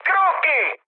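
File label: Italian enemy detected mood high, speech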